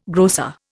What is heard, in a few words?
"grocer", Indian